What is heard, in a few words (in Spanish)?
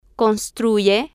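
"construye", spanish, female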